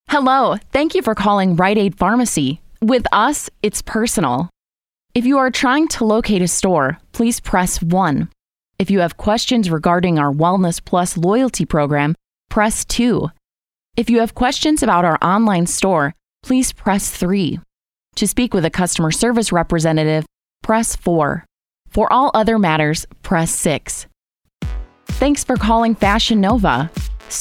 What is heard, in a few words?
Telephony; AdvantageIVR